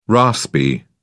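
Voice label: "raspy", British English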